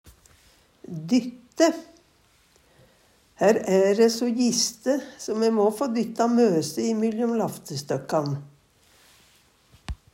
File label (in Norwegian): "dytte", Numedalsmål